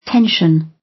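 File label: "tension", British English; Design and innovation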